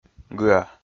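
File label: "гыа", Komi, male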